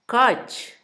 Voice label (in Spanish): cuerno, Zapoteco, Asunción Ocotlan; INALI; Haspelmath